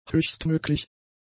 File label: "höchstmöglich", German; adjectives